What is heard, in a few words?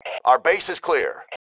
base clear, MALE